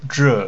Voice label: "zhe4", pinyin; this; Mandarin Chinese Pronunciation